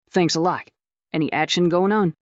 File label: fem